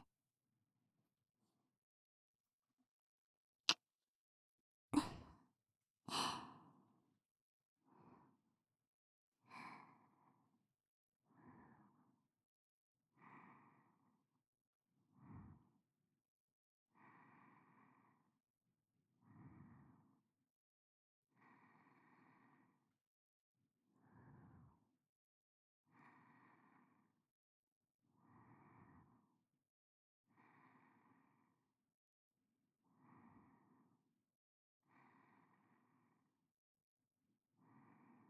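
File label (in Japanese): 日常音声：ぎゅっとして「おやすみなさいませ」～添い寝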